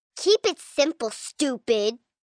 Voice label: Keep It Simple, Attitude, 1 girl, 9 yrs; Voice Box